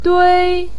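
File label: "dui" first tone, Mandarin Chinese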